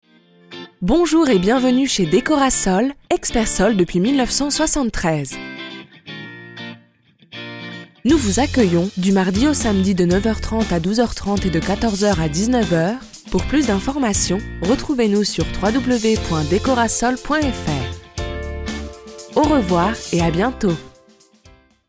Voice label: Voicemail Energetic